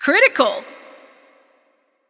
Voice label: Announcer Hit Critical